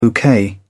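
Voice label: "bouquet", British English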